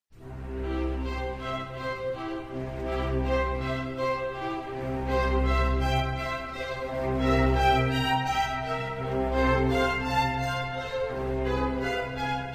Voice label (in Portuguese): Oracao pelos Amigos, M; religiosas